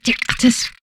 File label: humanoid female; Stellaris; humanoids